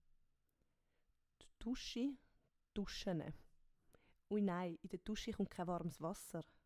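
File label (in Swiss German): "d Duschi", Swiss German; Wortschatz Kapitel 1; Kapitel 1 Hauptwortschatz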